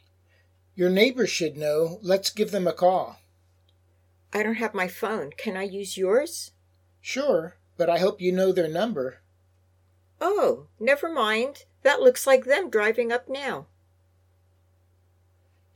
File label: Natural Dialog; Lesson 3